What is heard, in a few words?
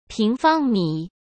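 "平方米", Mandarin Chinese; chinese-flashcards; hsk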